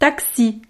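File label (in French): "taxi", French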